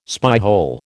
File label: "spyhole", American